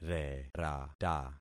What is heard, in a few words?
"rerata", concat syllables; Affixing experiment